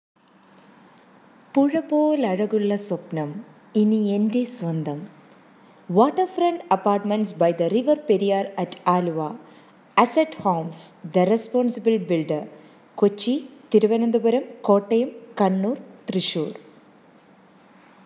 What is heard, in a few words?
Radio TV Commercial Voice